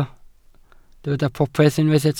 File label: "popeth", Welsh